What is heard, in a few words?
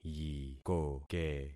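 "yikoke", concatenated syllables; Affixing experiment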